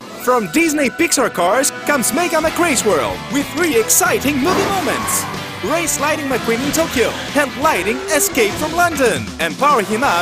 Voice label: ENGLISH MIXED tv; MEGA MACK RACEWORLD